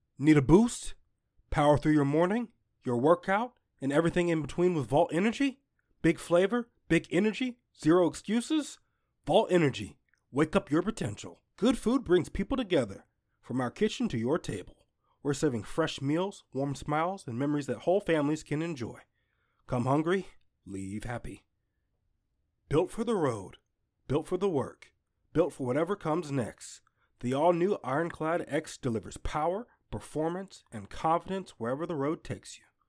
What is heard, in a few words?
advertisement